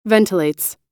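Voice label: "ventilates", American English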